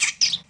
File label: sad; dgs; dmitri